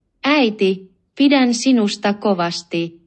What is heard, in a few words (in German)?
Finnisch; Mama, i han di gera